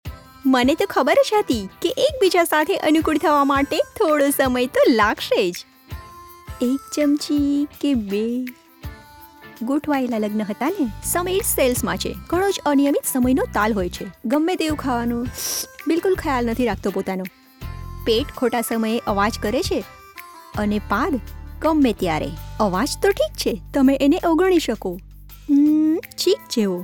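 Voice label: commercial gujarati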